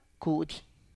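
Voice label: "kudy", Tlacochahuaya Zapotec; leg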